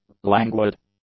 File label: "languid", english; adjectives